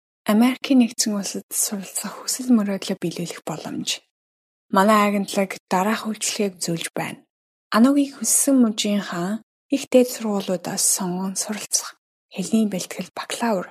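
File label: Mongolian, female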